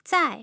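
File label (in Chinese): "zai4", Mandarin; tough sounds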